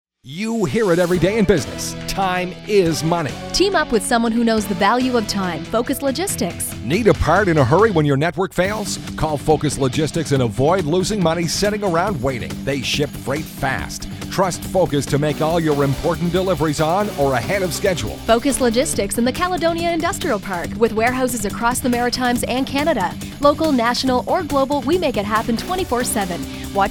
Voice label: radio ad; focuslogistics